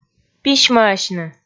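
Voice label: "письмӧасьны", Komi, female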